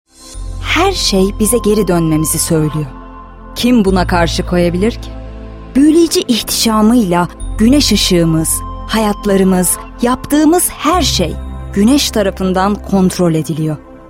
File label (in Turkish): belgesel